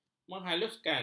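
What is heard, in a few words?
"menghaluskan", Malay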